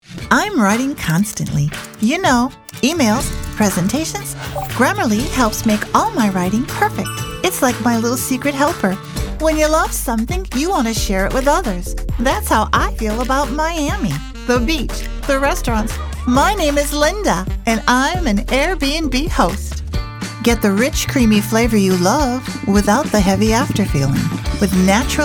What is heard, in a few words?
Commercial